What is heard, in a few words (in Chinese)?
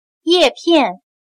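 "叶片" Mandarin Chinese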